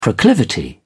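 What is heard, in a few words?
"proclivity", English, British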